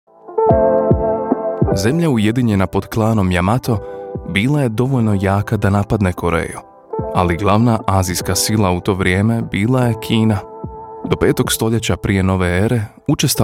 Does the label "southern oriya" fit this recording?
No